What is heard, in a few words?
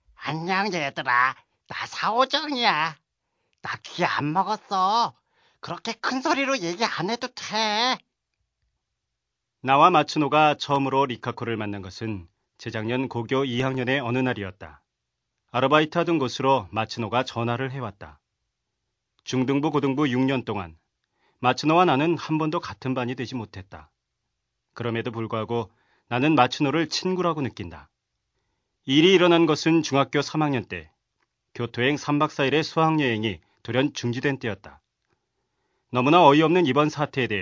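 Korean male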